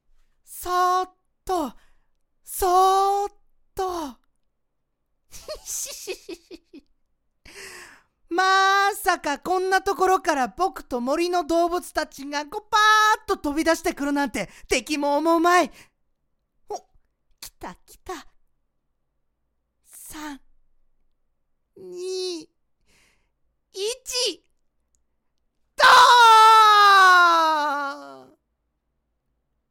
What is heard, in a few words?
boy